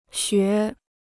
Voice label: "学", Chinese

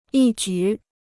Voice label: "一举", Chinese

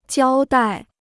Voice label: "交代", Chinese

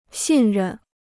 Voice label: "信任", Chinese

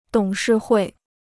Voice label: "董事会", Chinese

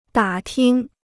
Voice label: "打听", Chinese

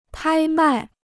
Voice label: "拍卖", Chinese

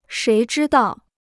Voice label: "谁知道", Chinese

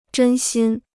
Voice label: "真心", Chinese

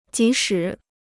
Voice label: "即使", Chinese

